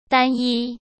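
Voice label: "单一", Mandarin Chinese; chinese-flashcards; hsk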